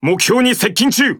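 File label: Speech Pilots Torpedo Engage, Japanese; World Of Warships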